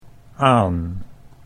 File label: "aan", Dutch